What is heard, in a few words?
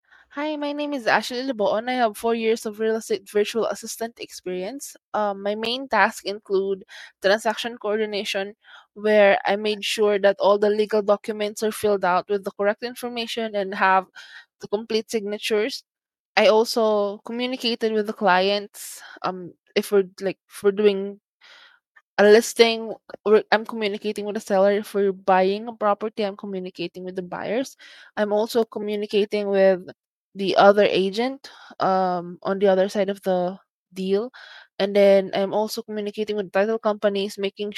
Voice Recording, Self Introduction; Brivity Virtual Assistants; Operations Support Specialist with Brivity VA for White Oak Realty Group